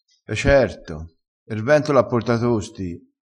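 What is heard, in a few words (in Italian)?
"te lo cicchi", vocabolario dialettale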